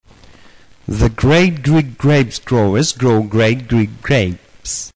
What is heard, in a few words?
Greek, Tongue Twist